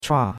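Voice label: "chua4", Chinese; Cours-de-Chinois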